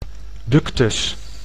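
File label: "ductus", Dutch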